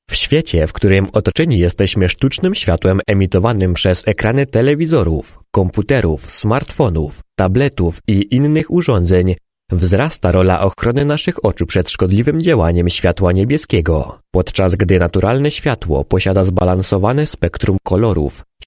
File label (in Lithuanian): VYR Lenku balsas, vyras